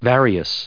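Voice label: "various" English, US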